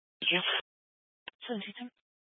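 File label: Dispatch, Scanner audio recording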